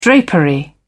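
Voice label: "drapery", British English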